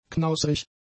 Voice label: "knausrig", German; adjectives